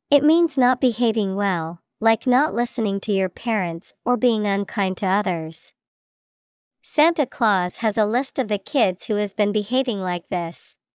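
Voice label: naughty, English vocabulary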